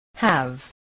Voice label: "have", English, spelling

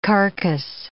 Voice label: "carcase", English

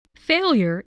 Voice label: "failure", voice

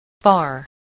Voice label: "far", voice

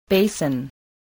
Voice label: "basin", English

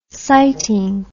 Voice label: "siting", words

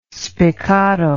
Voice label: "spiccato", English

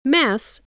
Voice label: "mess", voice, spelling

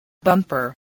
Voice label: "bumper", spelling

